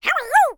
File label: cartoon character high pitched voice says how are you